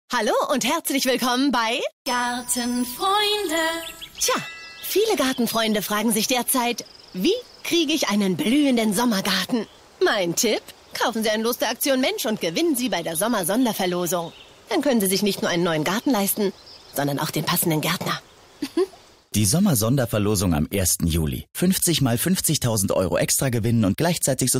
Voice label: Funkspot; Aktion Mensch

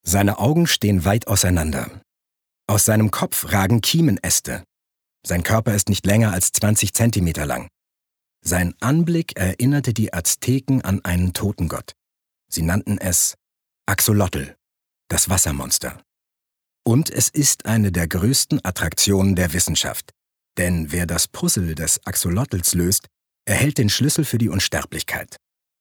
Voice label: Dokumentarischer Text, Doku, German

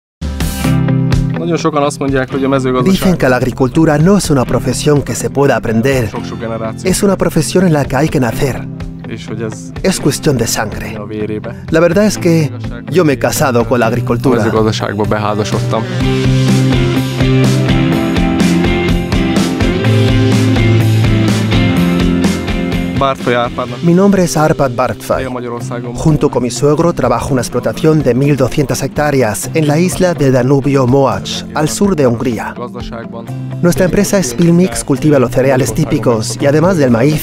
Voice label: erklärfilm spanisch

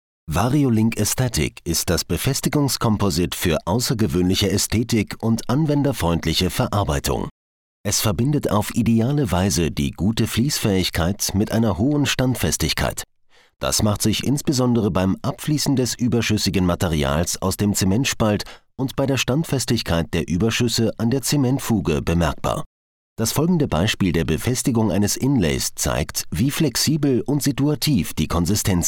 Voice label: ger medical

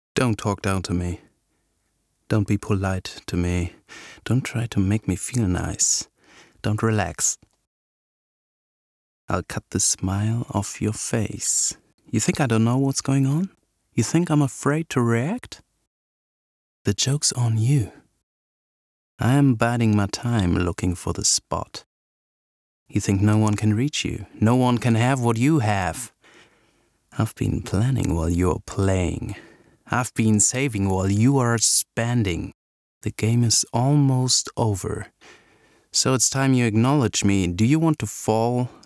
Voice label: Englisch; Talk Down

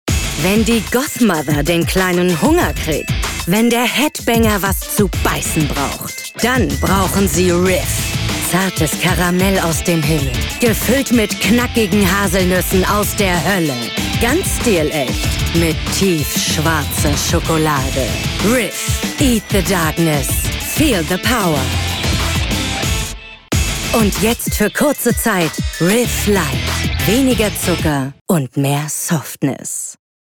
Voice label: Werbung Schokoriegel; LOFT VOICES

